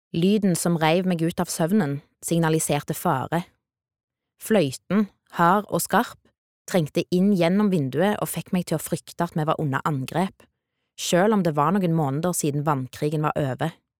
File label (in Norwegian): no lydbok